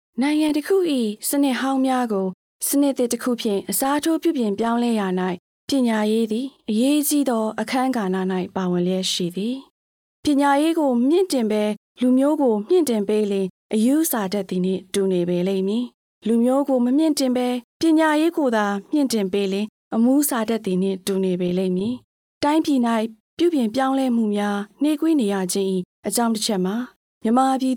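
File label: Burmese narration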